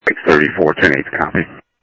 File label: Sheriff, scanner audio; South Scottsdale; MCSO - EAST Sheriff-EastCnty